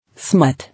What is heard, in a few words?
"Smut", English